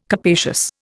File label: "capacious", Indian